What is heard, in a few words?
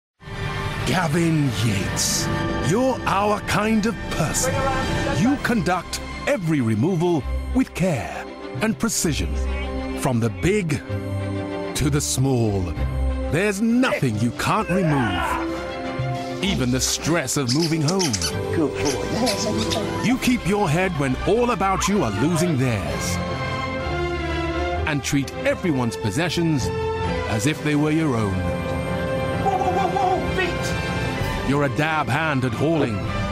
Commercial; Natural Accents: London, Midlands